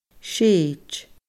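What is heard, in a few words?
"séid", Scottish Gaelic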